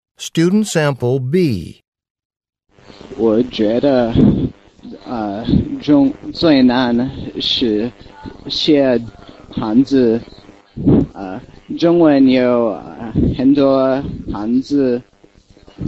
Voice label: chinese conversation

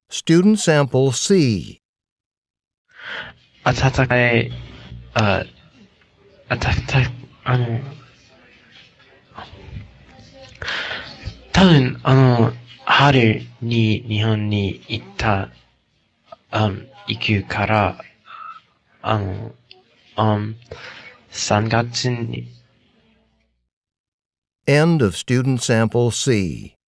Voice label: japanese conversation

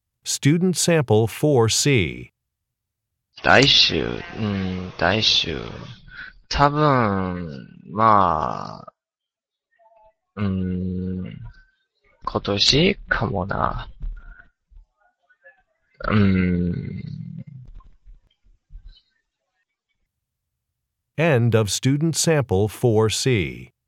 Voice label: japanese conversation

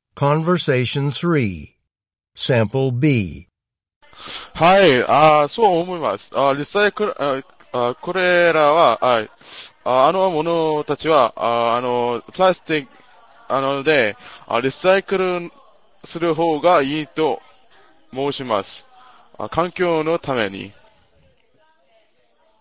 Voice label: japanese convo

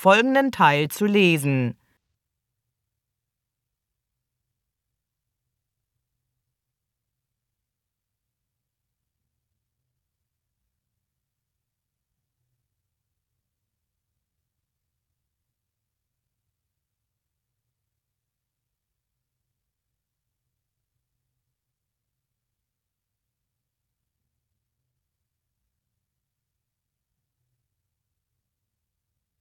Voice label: german directions

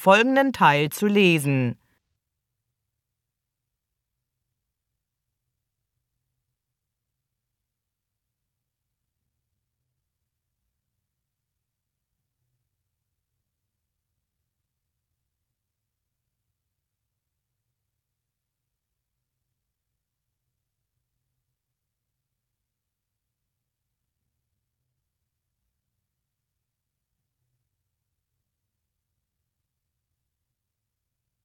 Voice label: german directions

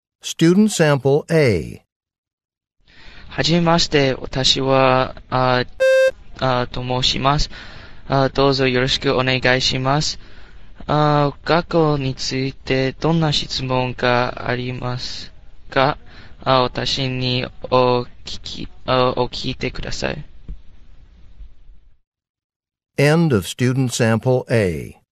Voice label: japanese conversation

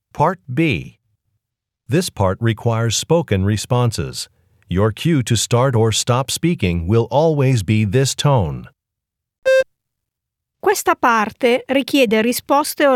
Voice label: italian directions

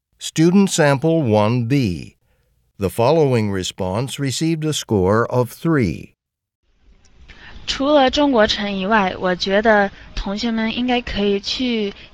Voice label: chinese conversation

